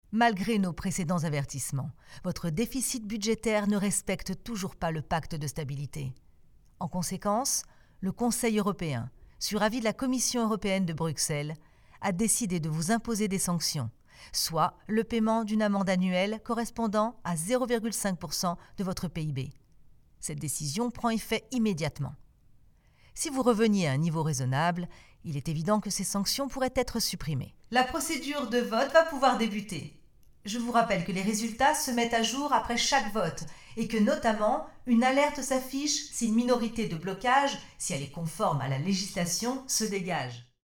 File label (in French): Voix Jeu vidéo; Power Révolution